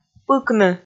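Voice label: "пыкны", Komi, female; kpv audio dict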